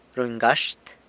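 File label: "runy gaxt", San Lucas Quiaviní Zapotec; spends money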